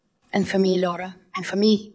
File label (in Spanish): Voice Acting es